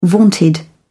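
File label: "vaunted", British English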